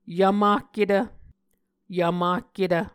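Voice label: "yvmahketv", Muscogee word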